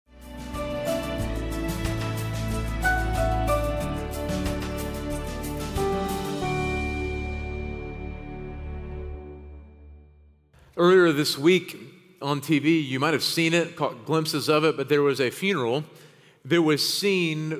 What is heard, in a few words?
Sermon English